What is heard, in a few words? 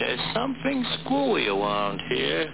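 "Something skewey", speech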